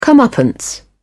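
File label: "comeuppance", British English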